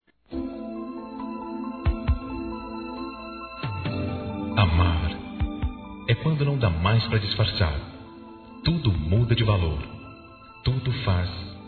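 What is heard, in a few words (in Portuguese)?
Aniversário Romantica Masculino; Aniversários; ANIVERSARIO romantico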